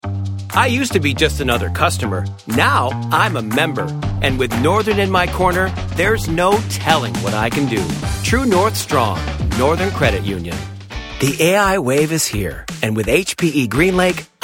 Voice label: commercial